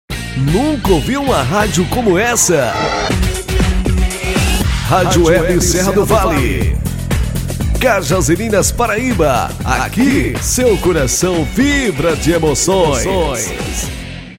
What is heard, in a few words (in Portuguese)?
locutor gravações